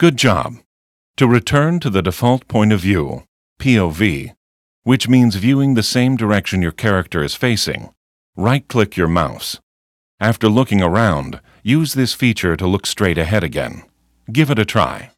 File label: tutorial voice; lineage2